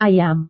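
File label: "ayam", Indonesian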